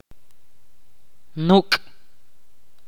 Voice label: "cuello", Ch'orti'

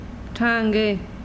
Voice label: pierna, mazahua

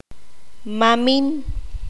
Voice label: "abuelo materno", Q'anjob'al

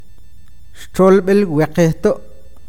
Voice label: cuento, awakateko

